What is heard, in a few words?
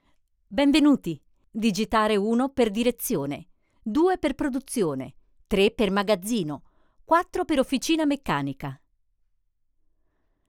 IVR; voix générées par l’intelligence artificielle